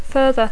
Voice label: "further", English

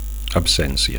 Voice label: "absencia", Catalan; absence

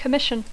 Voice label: "commission", English; comissió; encàrrec

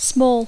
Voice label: "small", English; adjectiu; petit; menut

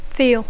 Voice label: "feel", English

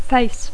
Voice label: "face", English

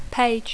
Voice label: "page", English

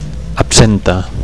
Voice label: "absenta", Catalan; (f) absinthe

